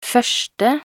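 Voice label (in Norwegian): "første", Norwegian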